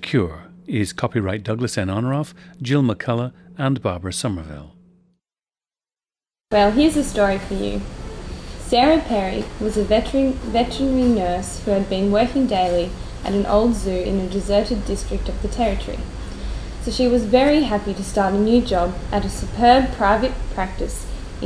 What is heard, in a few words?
australia